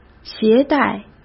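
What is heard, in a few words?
"携带", Mandarin Chinese; hsk; chinese-flashcards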